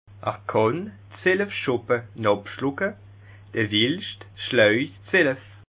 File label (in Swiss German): "schoppe", Bas Rhin; est capable de boire douze chopes pendant que sonnent les douze coups